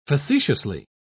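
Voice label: "facetiously", British English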